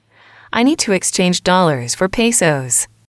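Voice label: "I need to exchange", English, female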